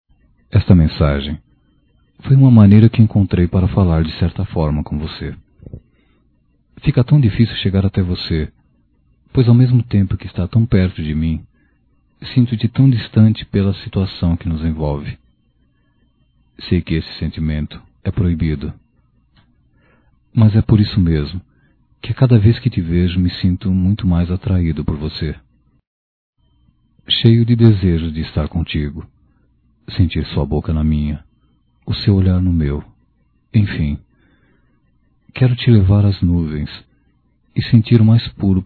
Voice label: Amor Proíbido Masculino; Telemensagens 2017

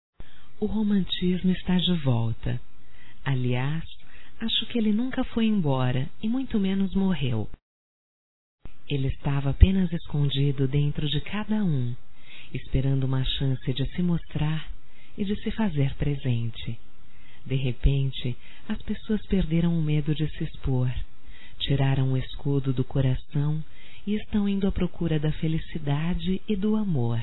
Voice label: voz - Anivers. Namoro ou Casamento (F); fem; Telemensagens 2017

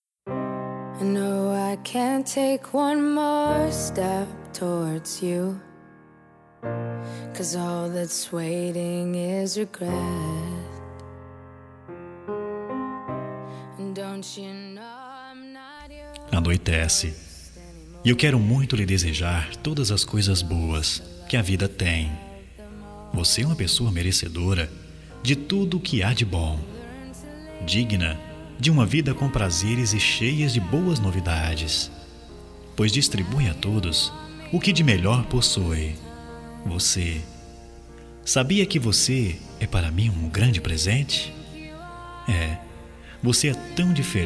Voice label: BOA NOITE GERAL M; Telemensagens 2017; Bom dia boa tarde boa noite

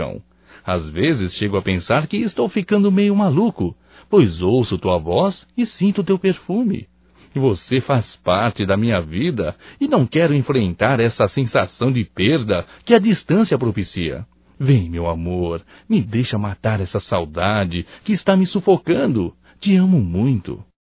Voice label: SAUDADES ROMANTICA, Só Voz, masc; Telemensagens; Telemensagens 2017

